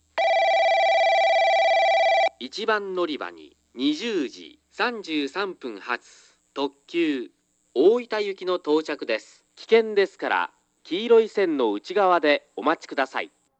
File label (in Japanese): 接近放送（特急 大分行き）; 接近放送は1回、通過放送は2回放送される。; 現在は放送更新で消滅寸前にある。